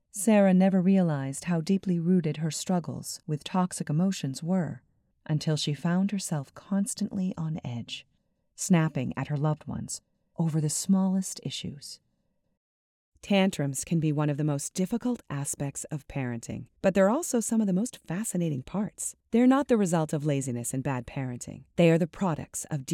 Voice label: Narration